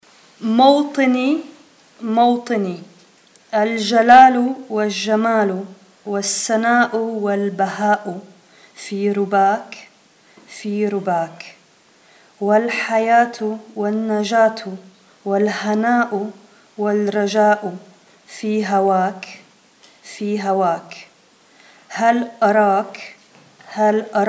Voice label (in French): Mawtini prononciation couplet; Mawtini (« ma patrie, mon pays ») est un chant écrit par le poète Ibrahim Tougan